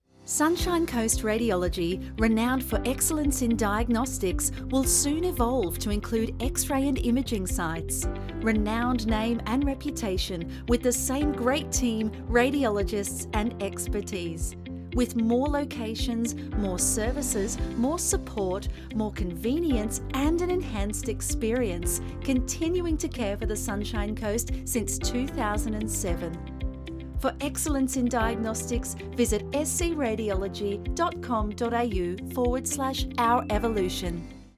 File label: Corporate Narration